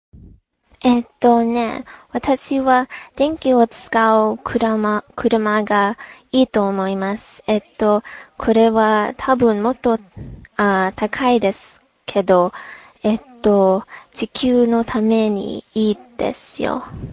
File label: japanese sample conversation